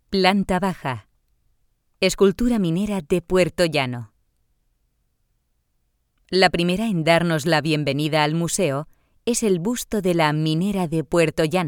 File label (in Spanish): audioguia; audioguia museo de la mineria; esp